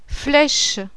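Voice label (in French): "FLECHE", French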